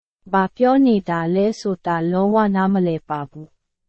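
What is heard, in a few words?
Burmese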